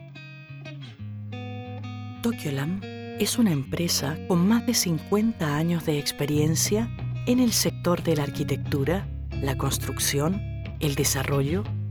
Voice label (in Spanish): corporativo institucional esp